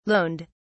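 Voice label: "loaned", British English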